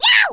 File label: "OUCH", Australian; Worms Armageddon